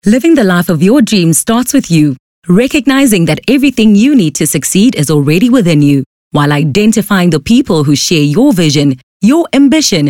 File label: English, corporate